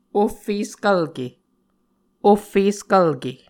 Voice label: "ohfeskvlke", Muscogee